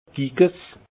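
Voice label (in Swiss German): "giges", alsace Haut-Rhin; vin; piquette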